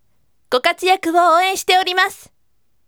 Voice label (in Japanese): voice "gokatuyaku ouen shitemasu"